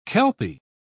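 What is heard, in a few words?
"kelpie", British English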